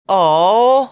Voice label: drawn out "ohh"

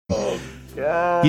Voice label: oh god away from microphone

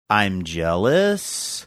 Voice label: saying i'm jealous sing songy manner

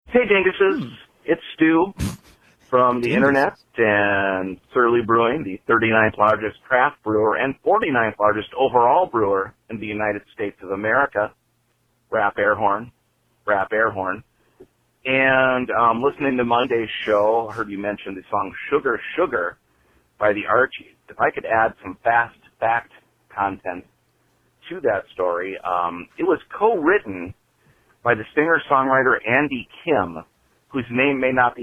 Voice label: voicemail message

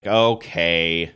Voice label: drawn out okay

